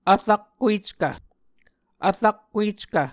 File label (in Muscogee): "vrakkueckv", Muscogee; Mvskoke Words with Repeated Consonants; A Dictionary of Creek/Muskogee; SIL International